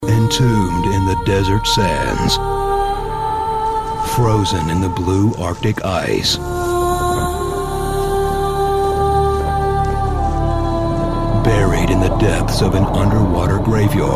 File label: Documentary narration